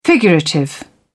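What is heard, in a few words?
"figurative", English, British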